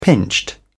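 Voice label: "pinched", British English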